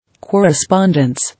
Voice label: "Correspondence", English

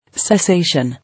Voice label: "Cessation", English

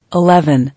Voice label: "Eleven", English

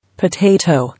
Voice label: "Potato", English

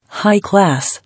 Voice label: "High class", English

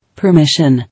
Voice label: "Permission", English

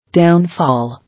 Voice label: "Downfall", English

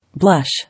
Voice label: "Blush", English

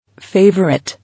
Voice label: "Favourite", English

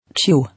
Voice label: "Chew", English

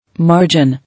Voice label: "Margin", English

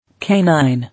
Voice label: "Canine", English

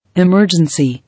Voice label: "Emergency", English

